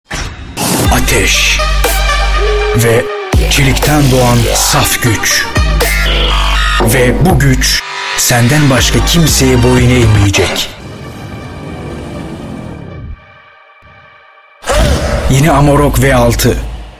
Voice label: Turkish, Male

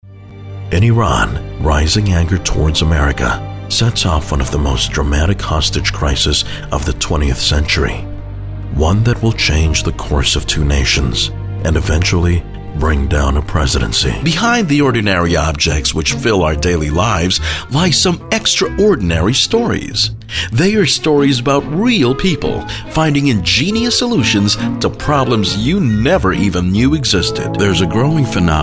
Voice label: US English Male Narration

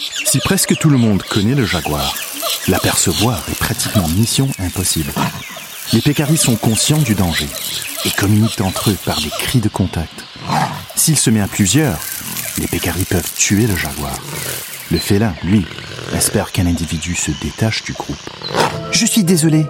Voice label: UK English French

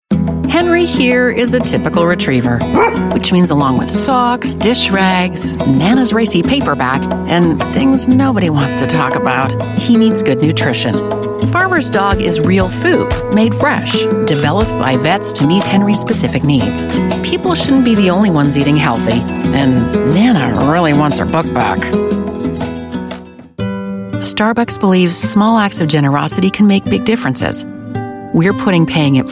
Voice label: US English Explainer

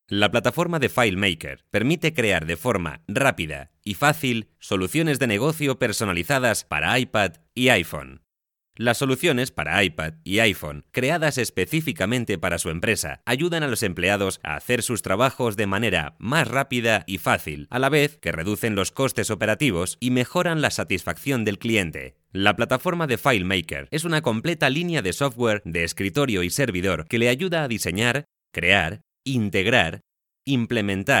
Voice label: Euro Spanish; Apple

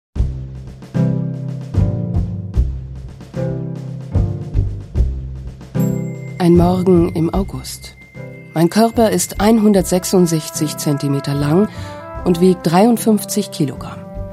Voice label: Narration German

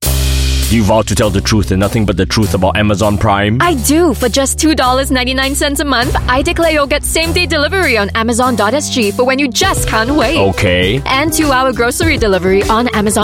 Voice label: Asian English Radio; Amazon SG Prime

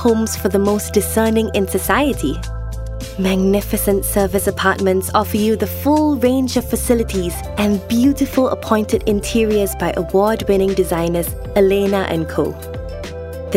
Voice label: Asian English London property

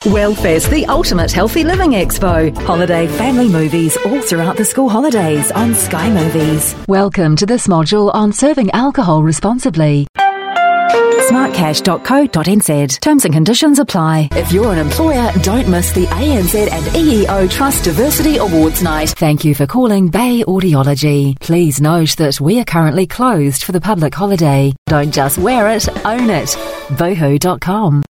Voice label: AU English

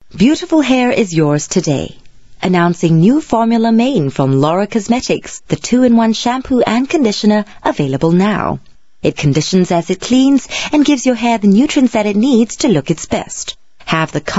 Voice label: Asian English

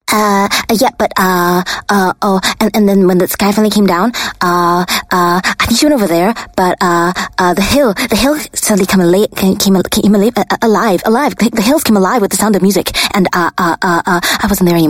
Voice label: Asian English Character

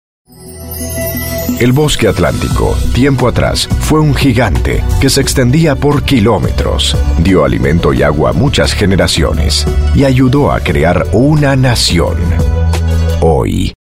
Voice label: Latin Spanish

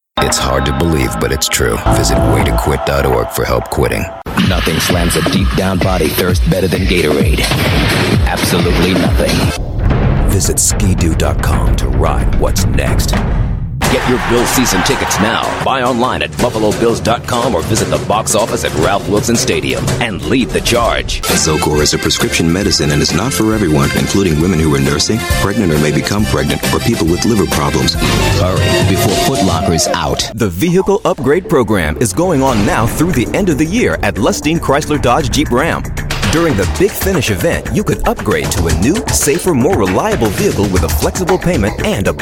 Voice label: US English Commercial

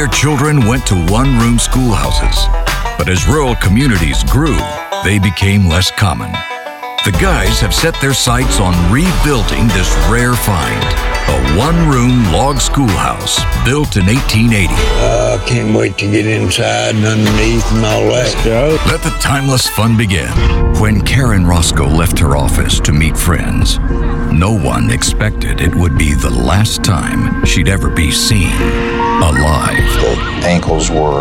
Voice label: US English Male Television

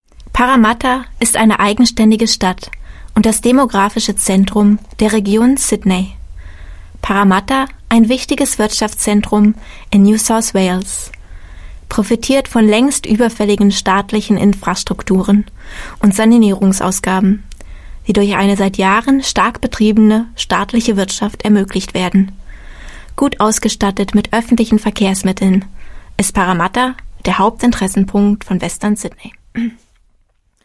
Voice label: German, German Female; Maserny Street

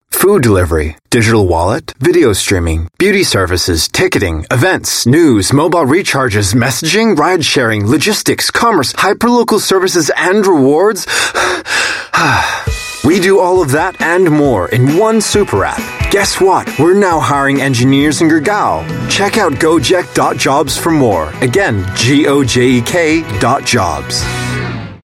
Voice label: US English Radio, Mid-Atlantic; Gojek

